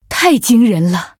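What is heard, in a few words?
Female, Chinese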